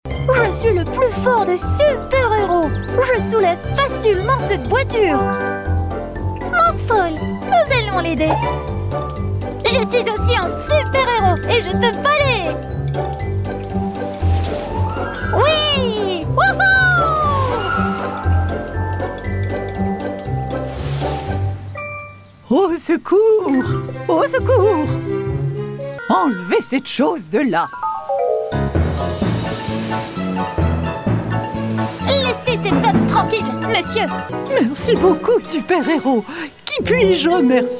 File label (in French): dessin anime voix cartoon; morphle